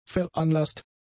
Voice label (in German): "veranlasst", German; adjectives